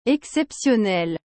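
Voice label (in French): "exceptionnel", French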